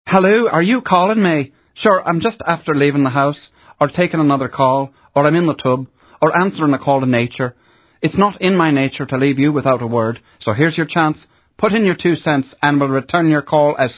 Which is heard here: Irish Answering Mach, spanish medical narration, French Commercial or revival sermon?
Irish Answering Mach